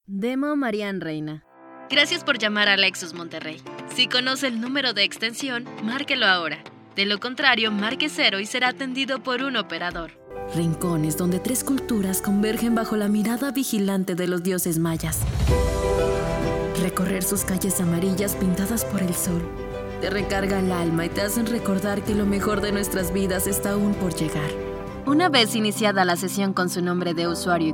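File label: corporativo, Mexican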